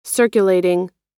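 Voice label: "circulating", American English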